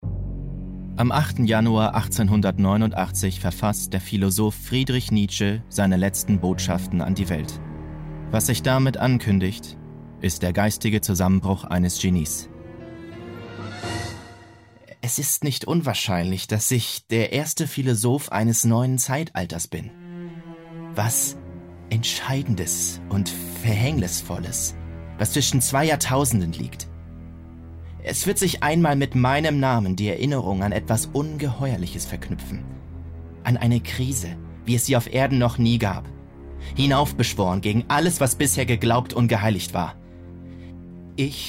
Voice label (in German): Sprach Demo Doku; Nietzsche